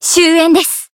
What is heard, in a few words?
finish, jp